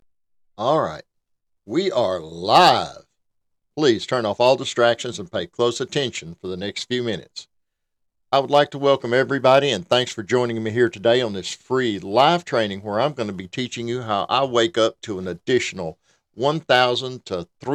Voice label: Webinar Presentation, Voiceover Artist Voice Sample